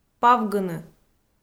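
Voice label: "павгыны", Komi, female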